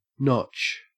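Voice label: "notch", Australian English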